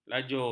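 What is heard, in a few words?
"lajur", Malay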